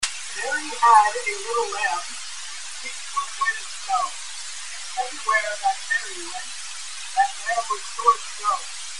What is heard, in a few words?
Speech Chips 20kHz, visual microphone; Mary; the revolutionary tech that can extract audio from images